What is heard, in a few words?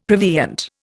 "prevenient", Indian; Dictionary